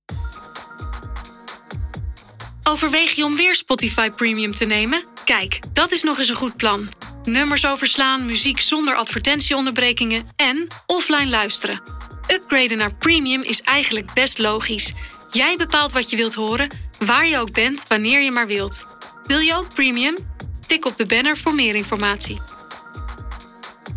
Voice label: dutch commercial

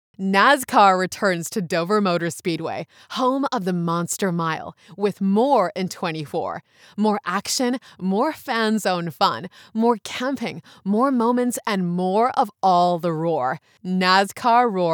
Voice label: english british announcements

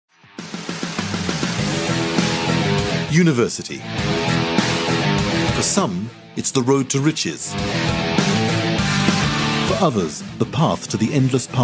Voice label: english british documentaries

